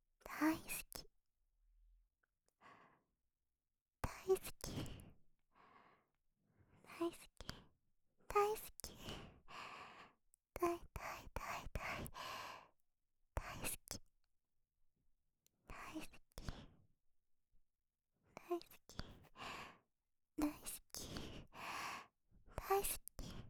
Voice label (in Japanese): 大好き, 左耳, ASMR